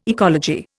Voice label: "ecology", Indian